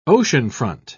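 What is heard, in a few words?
"oceanfront", British English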